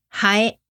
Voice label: "hae", Japanese